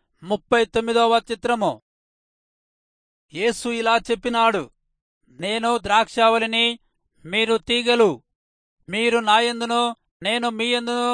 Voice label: telugu rayalseema; good news